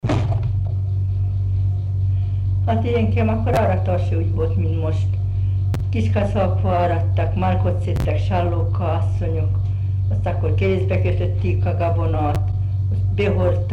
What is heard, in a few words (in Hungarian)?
nyelvjárás gyűjtések